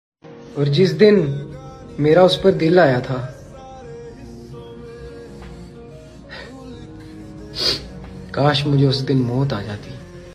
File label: sad line status; sad girls; broken heart